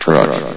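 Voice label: "truck", announcer voice; Source Engine; bshift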